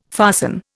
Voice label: "fasten", Indian

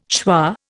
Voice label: "schwa", Indian

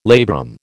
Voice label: "labrum", American

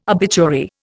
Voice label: "obituary", Indian

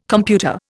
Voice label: "computer", Indian

